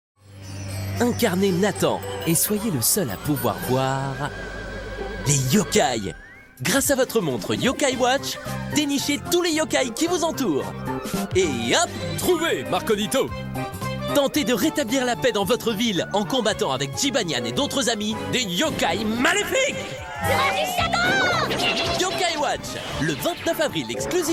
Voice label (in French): spot tv, demos voix; nintendo yokai watch